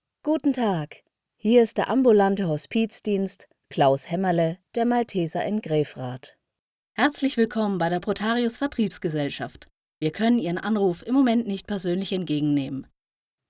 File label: VoiceMail